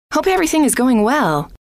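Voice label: hope everything is going well, female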